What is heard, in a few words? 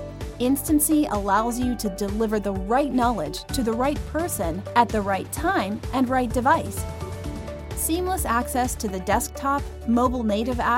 enterprise learning voiceover; Instancy